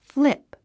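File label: "flip", English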